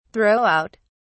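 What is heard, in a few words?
"throw out", British English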